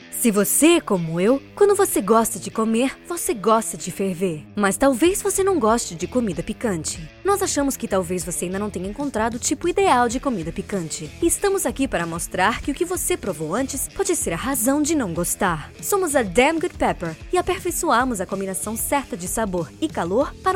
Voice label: commercial pt